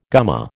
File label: "gumma", American